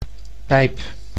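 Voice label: "pijp", Dutch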